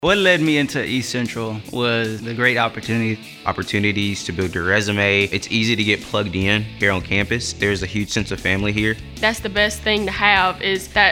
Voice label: radio spot; student wyim